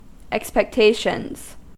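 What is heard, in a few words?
"expectations", American English; pronunciations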